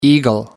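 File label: "eagle", English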